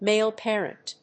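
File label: "male parent", English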